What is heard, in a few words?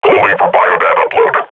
combat idle, grunt